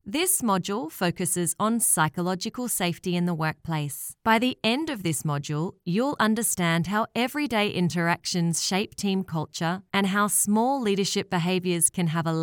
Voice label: Elearning, natural, neutral Australian accent, Neutral, Conversational, Believable Female